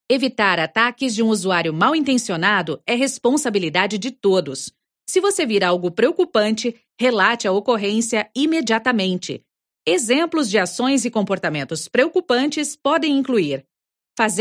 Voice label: Elearning